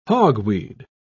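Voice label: "hogweed", British English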